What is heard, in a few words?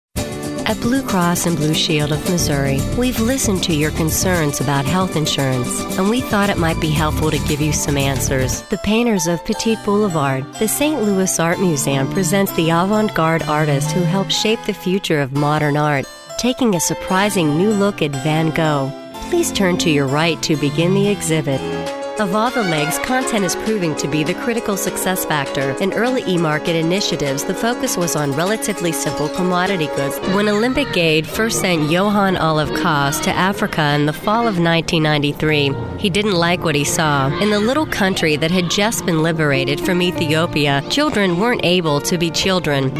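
narration, female voice talent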